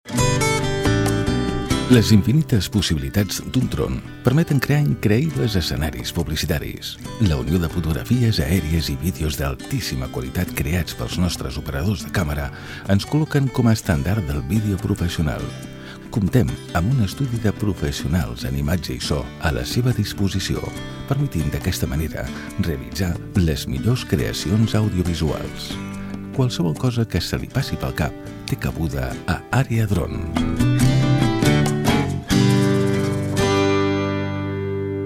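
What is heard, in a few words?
Catalan; generico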